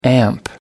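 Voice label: "ampere", English, British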